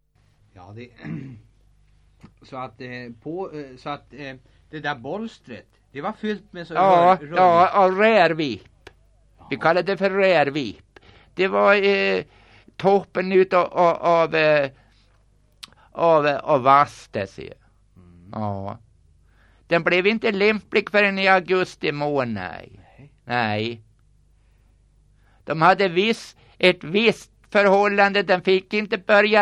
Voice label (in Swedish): Gräsö, dialekter; Svenska sagor; Folkminnen